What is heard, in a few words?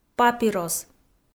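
"папирос", Komi, female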